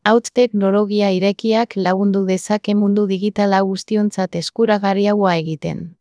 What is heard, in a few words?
eu-ES